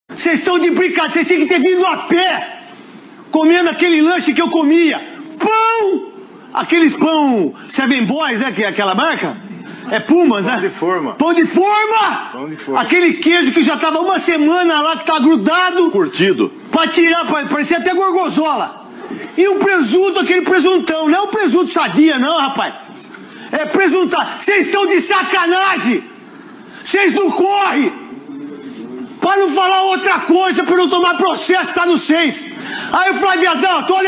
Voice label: rage; Full pistola